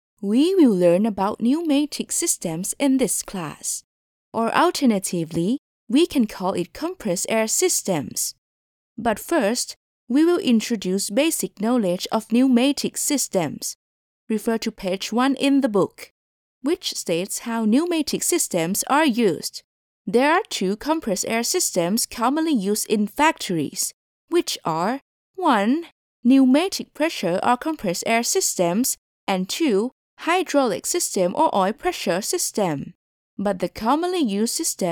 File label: english e-learning